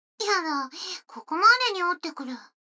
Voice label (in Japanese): 女の子台詞, ASMR